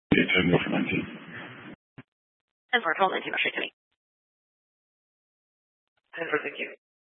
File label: Scottsdale Police, Simulcast